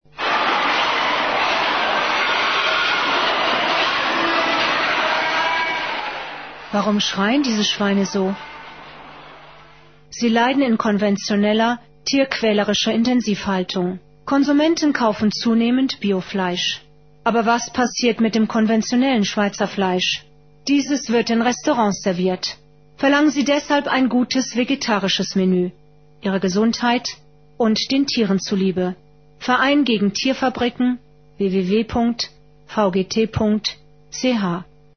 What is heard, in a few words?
spot